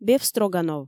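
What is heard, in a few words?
"бефстроганов" Komi, female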